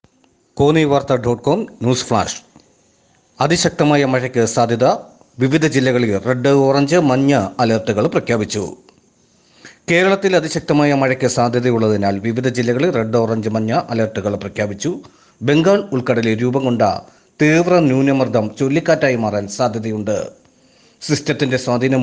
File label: news flash